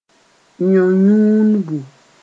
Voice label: "yõ-yũnbu", moore

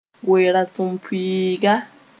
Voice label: "we-rasempʋɩɩga", moore

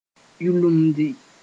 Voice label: "yulumdi", moore

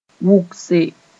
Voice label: "wʋgse", moore

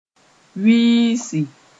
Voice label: "wiisi", Moore

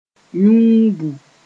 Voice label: "yũubu", moore

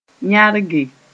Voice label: "yãrge", moore

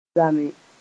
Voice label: "zame", moore

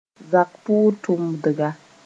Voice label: "zak pʋg-tʋmdga", moore

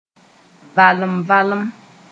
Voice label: "valem-valem", moore

